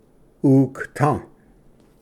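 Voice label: "ukta", Cherokee; ᎤᎧᏔ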